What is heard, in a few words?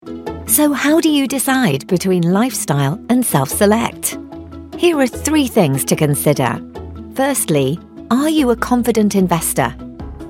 e-learning